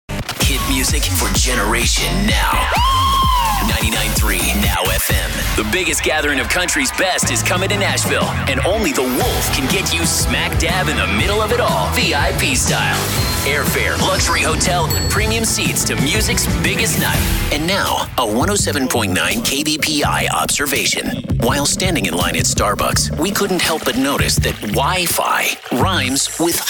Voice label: Radio Imaging Composite